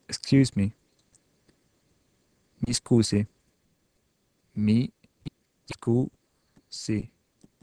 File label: Italian Excuse